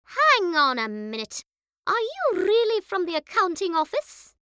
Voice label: Cartoon voice; Cartoons